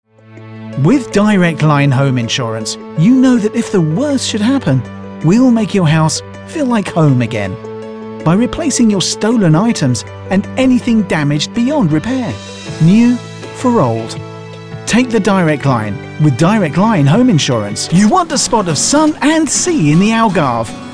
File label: English voice Over